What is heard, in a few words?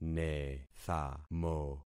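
"nethamo", concatenated syllables; Affixing experiment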